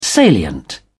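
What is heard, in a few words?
"salient", British English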